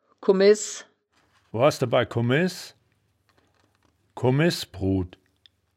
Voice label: "kommess", wittlich; das wittlicher woerterbuch; woerterbuch vertonung

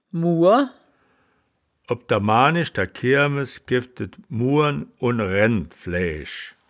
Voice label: "muhr", wittlich

